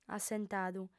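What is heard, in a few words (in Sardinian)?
"assentadu", female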